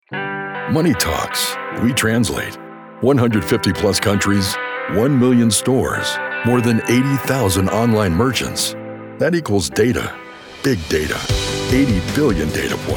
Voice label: Commercial

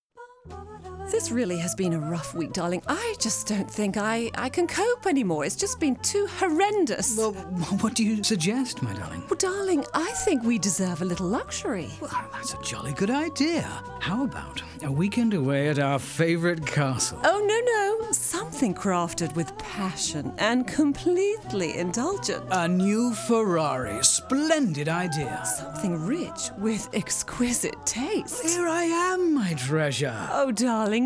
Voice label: Character British Accent

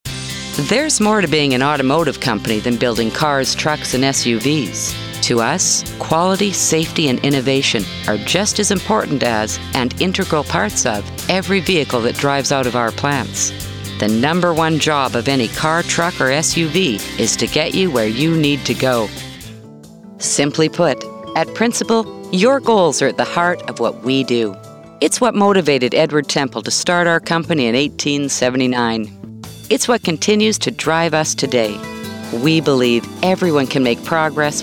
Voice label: Narration

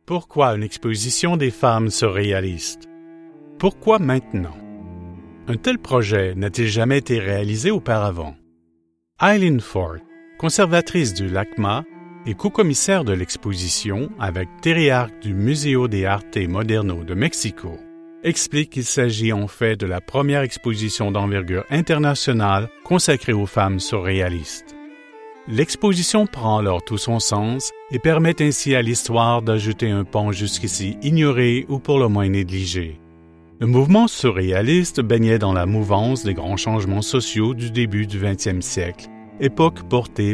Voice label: Narration

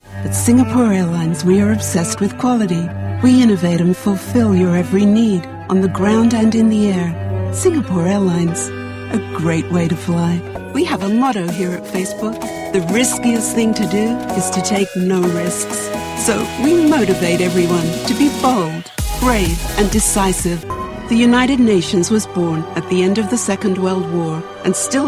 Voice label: Transatlantic Commercials

